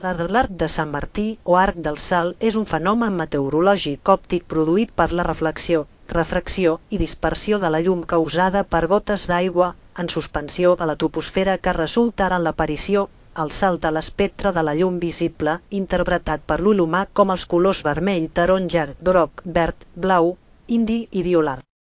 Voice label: speaker, ca ES; piper voices; upc ona